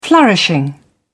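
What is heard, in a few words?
"flourishing", British English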